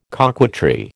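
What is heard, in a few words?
"coquetry", American English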